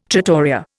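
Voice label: "trattoria", Indian English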